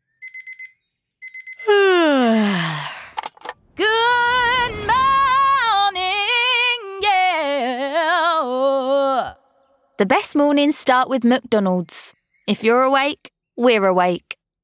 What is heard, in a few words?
Commercial; McDonalds